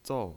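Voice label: "zou", Mandarin Chinese, tone 4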